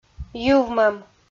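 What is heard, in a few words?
"йывмӧм", Komi, female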